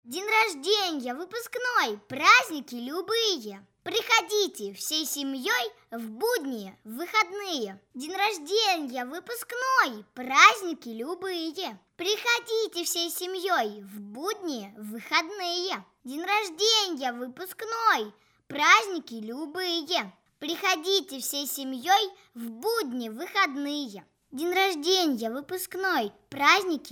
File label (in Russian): CHistyy golos